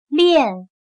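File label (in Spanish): "炼", chino mandarin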